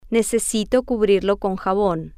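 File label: necesito cubrirlo con jabon, Spanish, female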